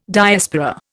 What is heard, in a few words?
"Diaspora", Indian